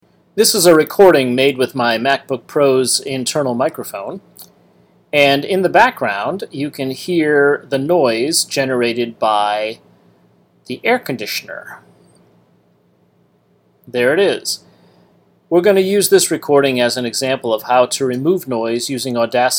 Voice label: voiceover